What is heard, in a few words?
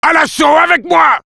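Sniper help me capture, French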